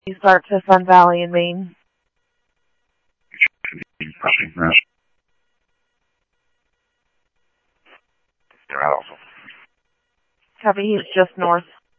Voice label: Mesa Simulcast police; MESA PD Superstn; MesaPoliceDep; jumper